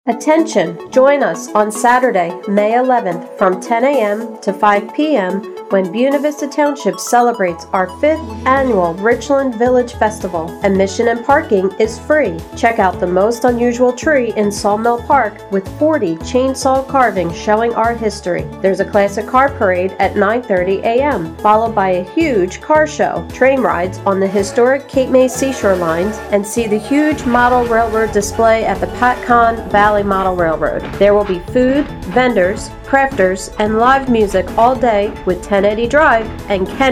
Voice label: Radio Comm; Richland Village Festival 2019